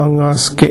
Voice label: "raisama", minamiyamagata

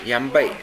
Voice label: "yanbae", minamiyamagata

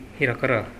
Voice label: "herakara", minamiyamagata

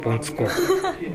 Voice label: "bontuko", minamiyamagata

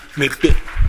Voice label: "neppi", minamiyamagata

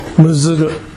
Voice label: "muzuru", minamiyamagata

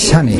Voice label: "shane", minamiyamagata

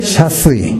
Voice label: "shasue", minamiyamagata

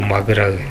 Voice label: "magurau", minamiyamagata

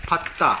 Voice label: "patta", minamiyamagata

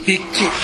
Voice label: "bikki", minamiyamagata; 蛙。〈べっき〉とも。

